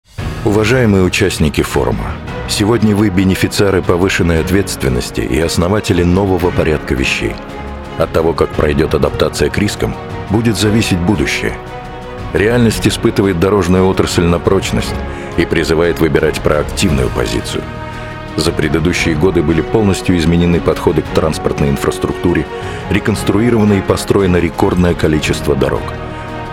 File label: rus